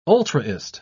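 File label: "ultraist", British English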